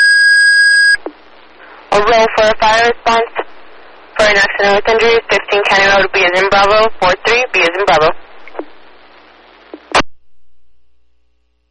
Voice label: dispatch call; fire; rowe